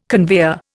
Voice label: "conveyor", Indian

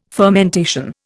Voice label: "fermentation", Indian

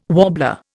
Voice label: "warbler", Indian

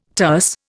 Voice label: "terce", Indian

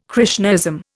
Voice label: "Krishnaism", Indian

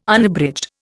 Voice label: "Unabridged", Indian

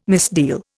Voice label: "misdeal", Indian

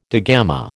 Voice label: "digamma", American

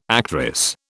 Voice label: "actress", British

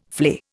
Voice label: "flay", Indian

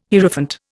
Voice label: "Hierophant", Indian

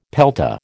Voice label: "pelta", American

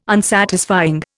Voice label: "Unsatisfying", Indian

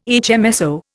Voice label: "HMSO", Indian

